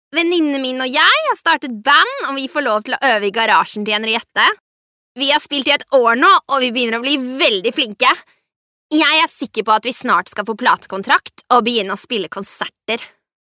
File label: norvegian girl